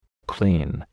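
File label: "clean", English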